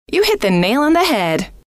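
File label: you hit the nail on the head, female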